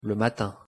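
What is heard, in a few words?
pronunciation "le matin", French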